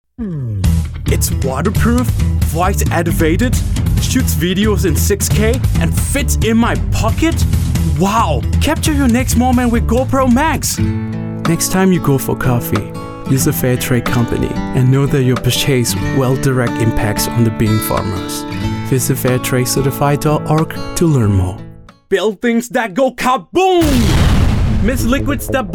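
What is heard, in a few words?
Burmese Accented English Commercial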